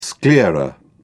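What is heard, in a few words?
"sclera", British English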